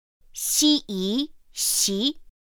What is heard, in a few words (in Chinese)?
"x í xí", pinyin; pinyin2; pinyinji